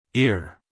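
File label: "ear", American English male; everyone-can-use-english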